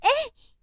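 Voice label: "ei", Japanese, woman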